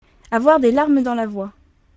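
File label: "avoir des larmes dans la voix", French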